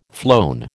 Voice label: "flown", American

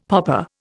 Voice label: "poppa", Indian; Dictionary

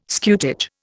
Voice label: "scutage", Indian

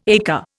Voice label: "ekka", Indian

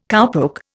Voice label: "cowpoke", Indian

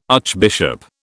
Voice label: "archbishop", British

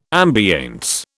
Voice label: "ambiance", British

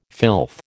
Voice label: "filth", American

